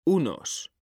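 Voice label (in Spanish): "unos", Spanish; mekanta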